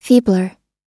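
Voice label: "feebler", American English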